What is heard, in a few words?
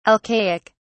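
"alcaic", British English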